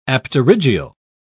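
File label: "apterygial", British English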